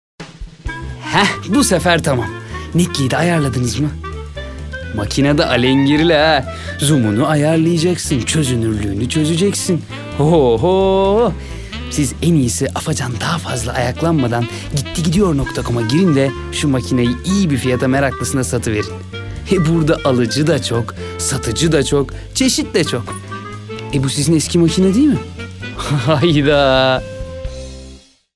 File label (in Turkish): enerjik esprili